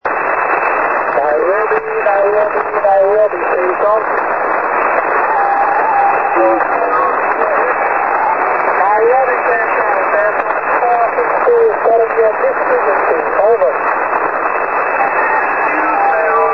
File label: usb